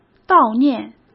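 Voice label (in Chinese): "悼念", Mandarin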